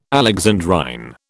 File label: "alexandrine", British